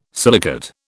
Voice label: "silicate", British

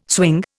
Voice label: "swing", Indian

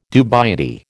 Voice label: "dubiety", American

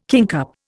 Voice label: "kingcup", Indian

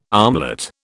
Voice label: "armlet", British

